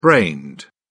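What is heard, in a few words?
"brained", British English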